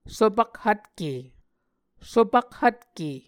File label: "sopakhvtke", Muscogee